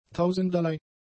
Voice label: "tausenderlei", German; adjectives